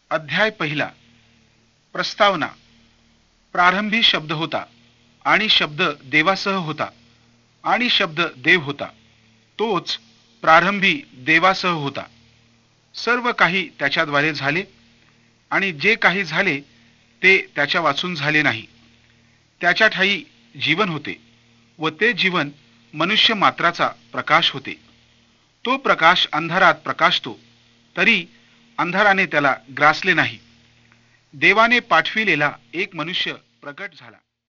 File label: new testament dramatized marathi; mar marathi audio bible